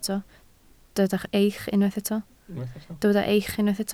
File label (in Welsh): "eich", Welsh